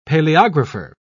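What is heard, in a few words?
"paleographer", British English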